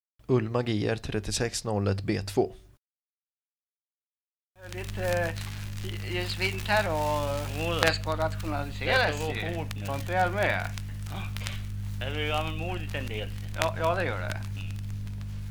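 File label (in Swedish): Västermo Södermanland, dialekter, svenska sagor; Folkminnen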